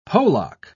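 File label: "polacca", British English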